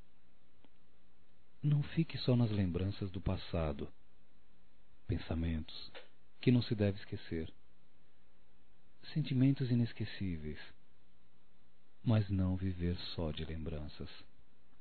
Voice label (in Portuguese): Abra Seu Coração Masculino, Telemensagens, Românticas